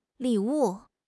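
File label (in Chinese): "li3 wu4", Chinese; HSK; HSK3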